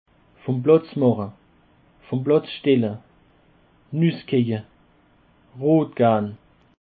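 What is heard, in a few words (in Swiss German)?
"platz mache", Alsatian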